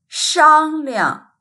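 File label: "shang1liang", Mandarin Chinese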